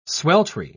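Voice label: "sweltry", British English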